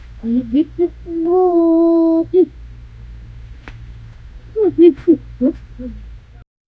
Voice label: aramaic conversation

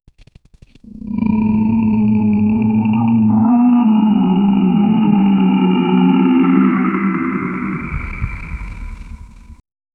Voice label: garbled wet whispering; vocalizations

